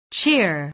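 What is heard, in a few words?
"cheer", English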